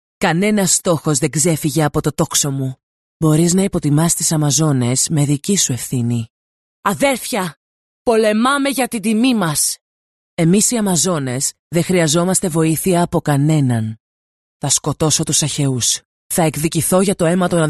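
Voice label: Greek Female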